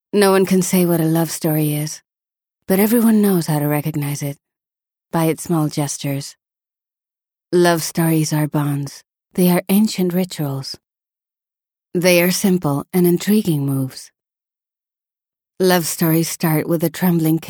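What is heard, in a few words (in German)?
English werbung, voicesamples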